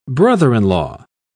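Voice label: "brother-in-law", British English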